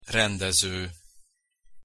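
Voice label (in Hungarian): "rendezo", Hungarian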